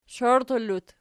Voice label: "shoirtallut", Archi